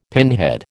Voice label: "pinhead", American